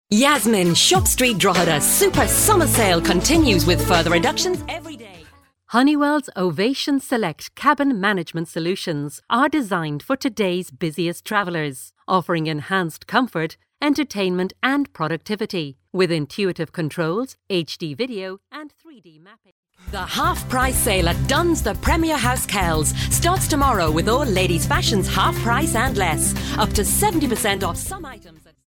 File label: female irish voice over sales